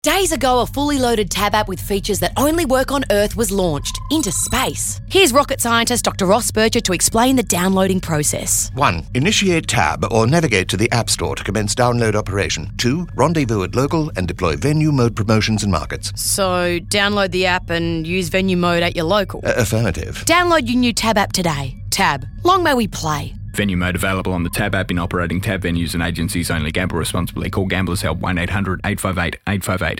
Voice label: Radio; TAB; Rocket Science; mc saatchi